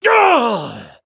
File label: Male Human Death